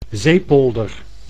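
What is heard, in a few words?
"zeepolder", Dutch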